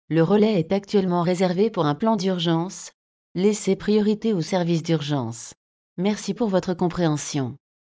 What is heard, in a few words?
emergency fr